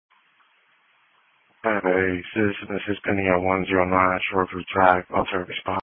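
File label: Police Patrol Dispatch; New Bern Police Patrol 1 (Dispatch) (TG 200)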